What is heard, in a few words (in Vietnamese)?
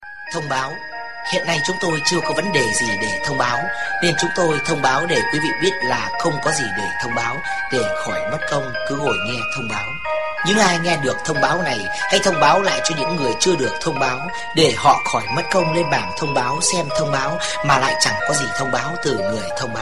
thong bao hai huoc